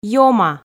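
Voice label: "ёма", Komi, female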